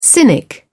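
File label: "cynic", British English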